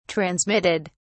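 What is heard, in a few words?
"transmitted", British English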